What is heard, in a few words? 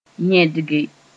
"yẽdge", Mooré; unblock